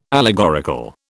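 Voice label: "allegorical", British English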